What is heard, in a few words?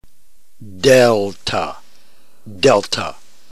"delta", Greek